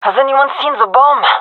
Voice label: query bomb, gendermerie fem; vo

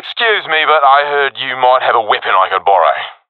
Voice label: request weapon, professional epic; vo; Counter Strike Model Pack; CS:GO

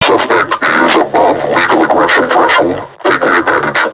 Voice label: taunt, vo combine suppressor; Half Life Alyx

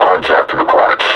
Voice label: announce enemy zombie, combine suppressor

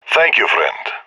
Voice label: thankful; Counter Strike; CS:GO; leet epic

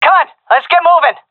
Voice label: request move, seal fem; vo; agents; Source Model Packs; Counter Strike Model Pack; CS2

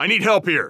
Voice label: help, heavy; vo; Counter Strike Model Pack; CS:GO; phoenix coop

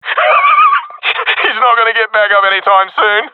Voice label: i killed enemy, professional epic; vo; Source Model Packs; Counter Strike Model Pack; CS2

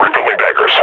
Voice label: reload, combine grunt; vo

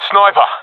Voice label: sees sniper, professional; vo; Counter Strike Model Pack; CS:GO

